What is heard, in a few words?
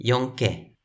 "jonke", Toki Pona